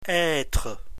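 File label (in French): "etre", French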